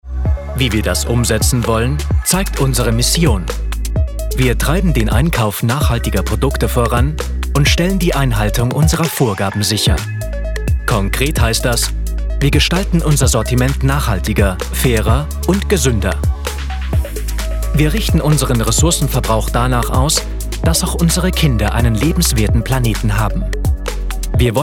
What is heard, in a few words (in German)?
E-Learning sachlich; Lidl